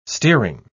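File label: "steering", British English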